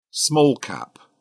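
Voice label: "small-cap", English, British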